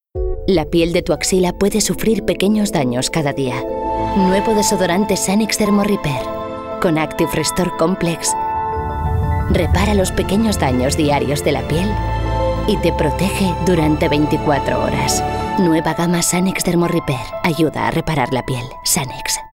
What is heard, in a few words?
female spanish skin comercial; ideasonora